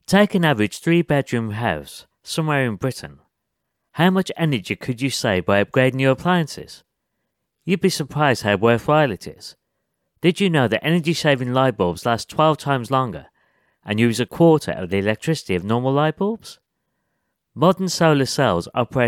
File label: commercials